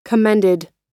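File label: "commended", US English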